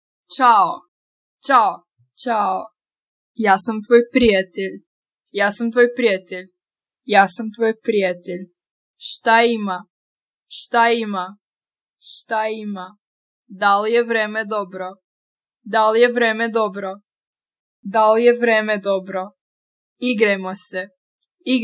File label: woman